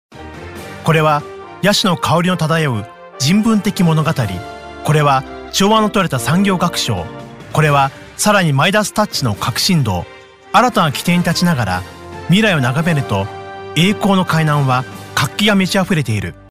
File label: japanese voiceover locutor japones